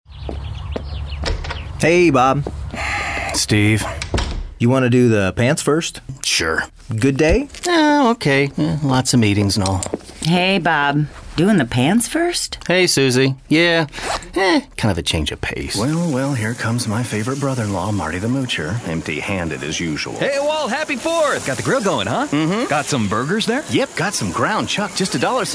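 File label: us dialog, American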